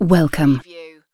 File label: UK female "welcome"; lynne publishing